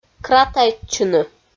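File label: "кратайтчыны", Komi, female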